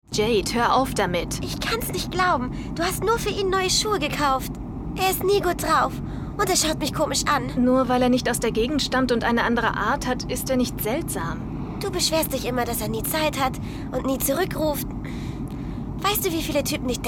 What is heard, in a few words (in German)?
Synchron